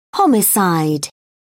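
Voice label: "homicide", British English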